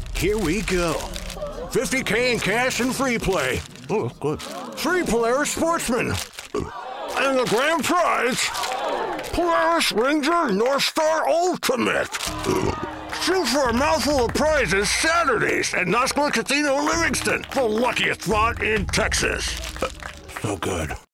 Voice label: Radio With Music; Naskila